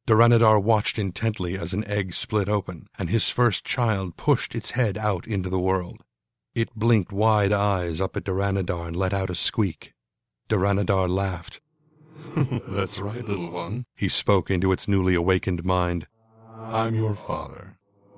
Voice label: Narration Sample